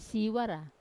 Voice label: "siwara" to grow, Wamesa